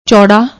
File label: "chouda", Punjabi